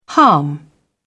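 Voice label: "harm", British English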